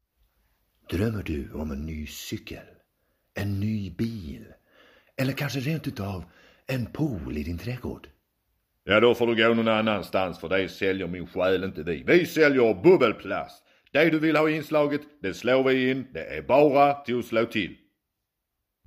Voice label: commercial